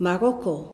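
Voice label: "moroco", Dutch; gcompris-data; geography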